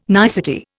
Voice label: "nicety", Indian